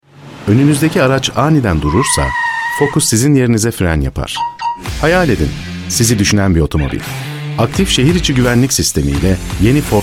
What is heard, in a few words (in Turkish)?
reklam karizmatik; ford focus